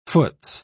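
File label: "foots", en, uk British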